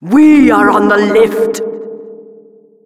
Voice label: rocket warnings, announcer